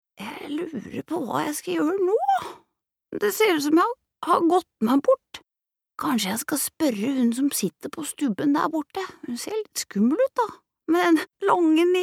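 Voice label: Norwegian dub character; norsk; kvinne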